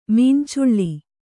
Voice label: "ಮೀನ್ಚುಳ್ಳಿ", Kannada